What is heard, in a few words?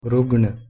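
"rugna" Hindi, male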